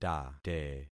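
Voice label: "tate", concatenated syllables; Affixing experiment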